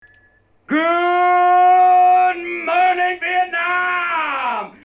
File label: sfera pro omni, mic comparison; arozzi; mic comparison 2018